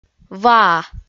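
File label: "ваа", Komi, female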